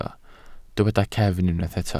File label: "cefn", Welsh